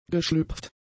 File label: "geschlüpft", German; adjectives